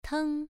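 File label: "teng" Mandarin Chinese, first tone, pronunciation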